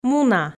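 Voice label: "муна", Komi, female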